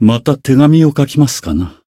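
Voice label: Voice win, Japanese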